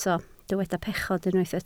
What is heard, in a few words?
"pechod", Welsh